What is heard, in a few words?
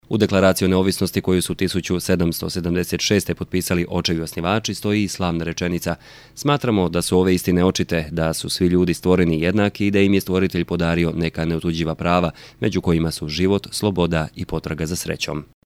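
croatian male narration, voice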